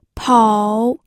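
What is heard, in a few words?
"pou", Mandarin Chinese, third tone; pinyin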